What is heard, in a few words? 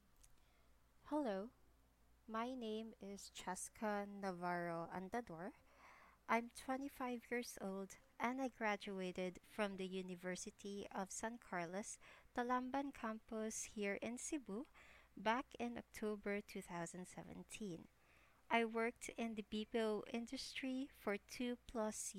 voice recording, Self Introduction